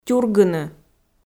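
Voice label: "тюргыны", Komi, female; audio dict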